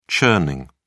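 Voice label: "churning", British English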